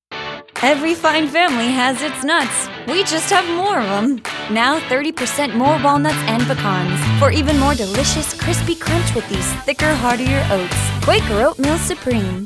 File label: Eng, english females